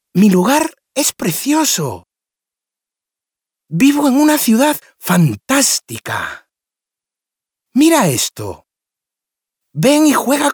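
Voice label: Spanish male children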